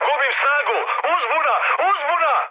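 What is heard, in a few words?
Serbian damaged engine mood high, speech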